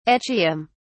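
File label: "echium", British English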